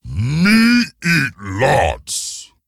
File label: Ogre Emote HAPPY HOLIDAYS; Boulderfist